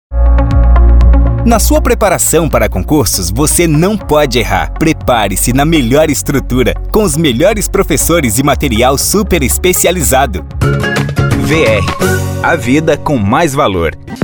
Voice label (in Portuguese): locutor